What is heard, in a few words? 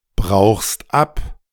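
"brauchst ab", German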